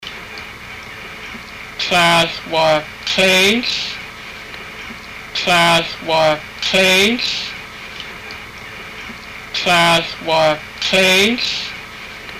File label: fifteen, haida; Tláahl wáak tléihl